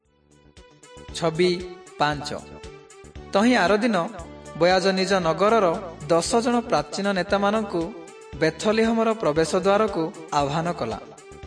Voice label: southern oriya; servants of god